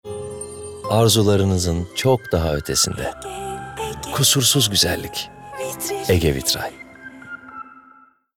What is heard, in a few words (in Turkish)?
Romantik